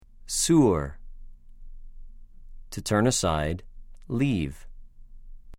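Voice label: "סוּר", Hebrew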